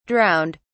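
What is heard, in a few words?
"drowned", British English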